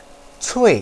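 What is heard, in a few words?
"cui4", Mandarin Chinese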